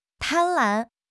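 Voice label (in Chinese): "贪婪", Chinese; HSK6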